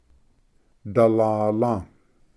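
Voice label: "dalala", Cherokee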